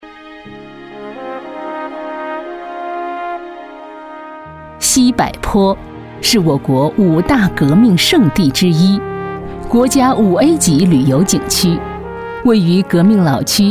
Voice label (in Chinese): 女 宣传片 旅游 红色旅游 稳重; 西柏坡